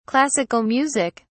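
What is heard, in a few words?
"classical music", English, uk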